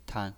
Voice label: "tan0", pinyin